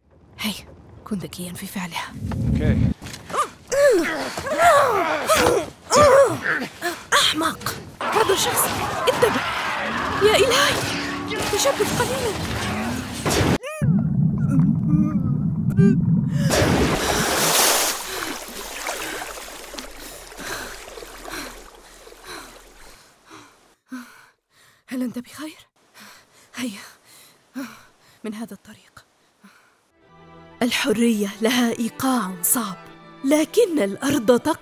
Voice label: arabic video games

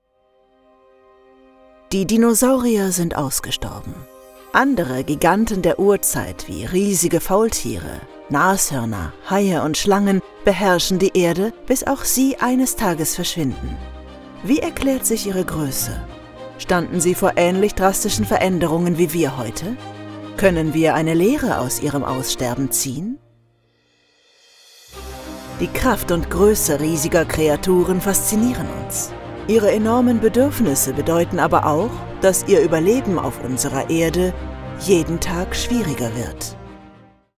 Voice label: german others

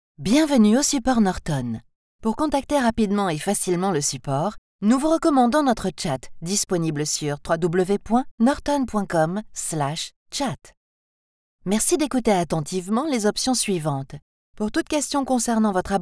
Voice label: french ivr

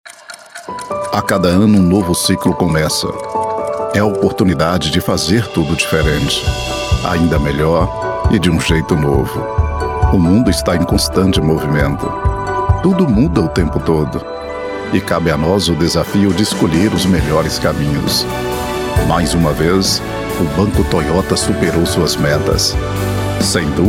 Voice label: portuguese brazilian commercial

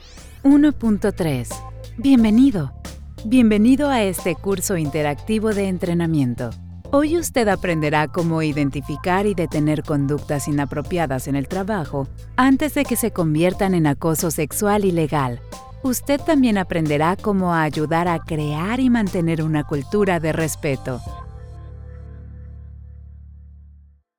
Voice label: spanish latin american e-learning

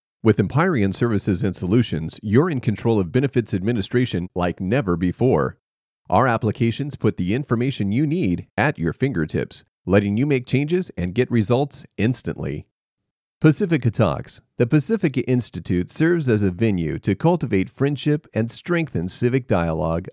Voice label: english american corporate videos

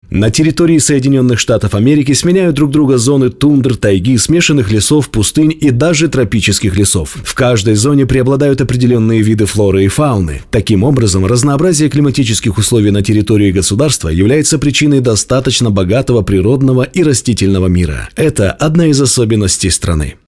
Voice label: russian ivr

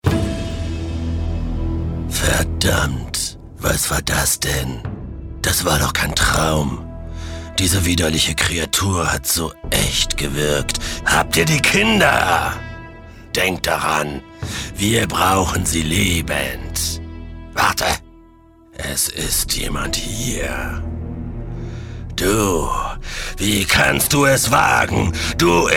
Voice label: german video games

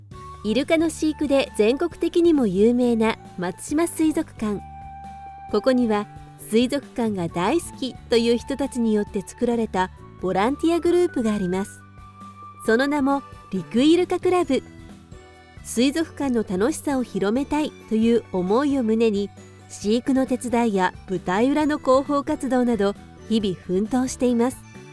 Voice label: japanese tv shows

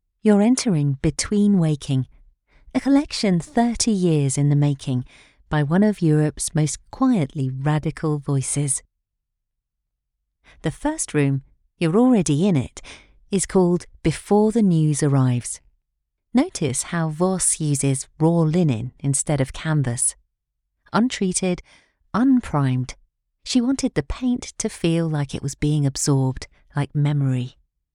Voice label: english british audio guides; voix off bilingue anglais/turc

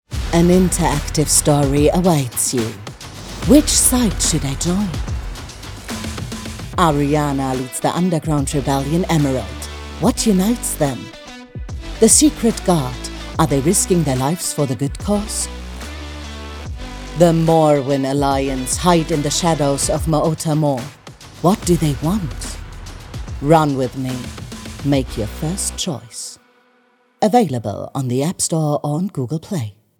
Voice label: english commercial

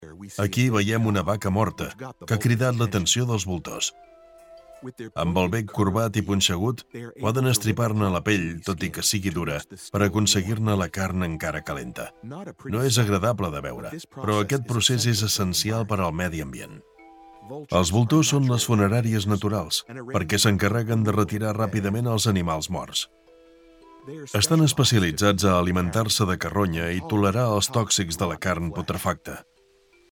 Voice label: catalan documentaries

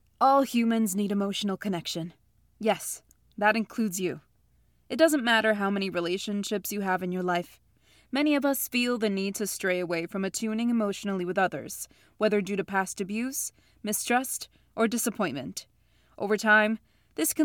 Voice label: english american audiobooks; professional bilingual (English and Spanish) voice actor

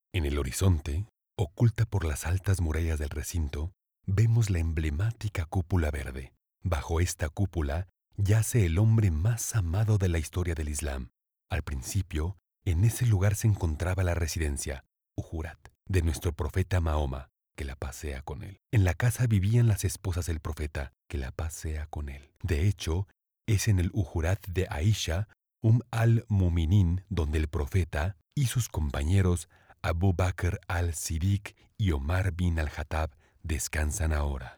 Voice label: spanish latin american documentaries

